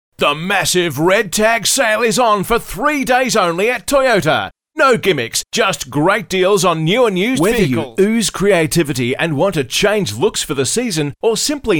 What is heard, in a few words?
Hard Sell